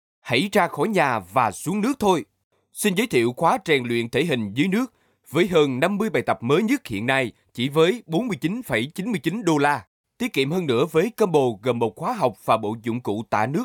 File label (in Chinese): 激情 广告